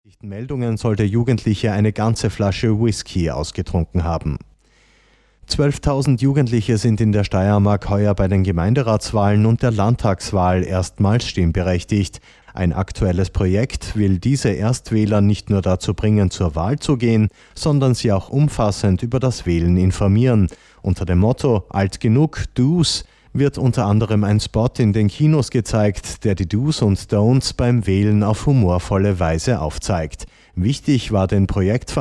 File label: radio soundportal